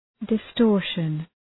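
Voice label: "distortion", English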